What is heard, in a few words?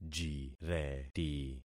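"jireti", concatenated syllables; Affixing experiment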